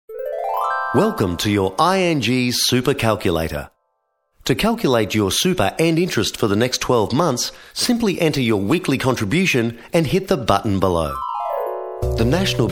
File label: corporate